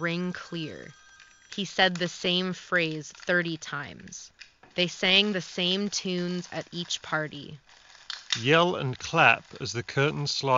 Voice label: Wireless Office microphone sample; SteelSeries Arctis Nova 7